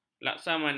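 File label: "laksamana", Malay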